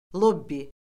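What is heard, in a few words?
"lobbi", Azerbaijani; Orfoqrafiya Lüğəti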